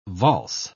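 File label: "valse", British English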